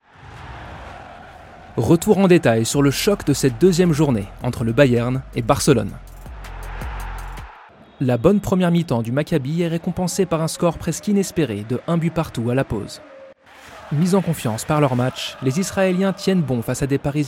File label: French